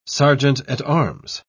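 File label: "serjeant-at-arms", British English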